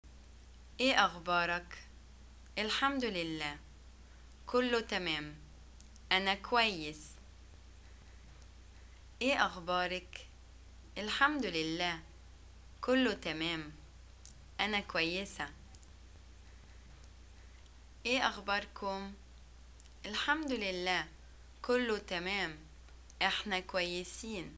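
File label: Egyptian greeting how are you, arabic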